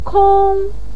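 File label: "kong", Mandarin pinyin, tone 1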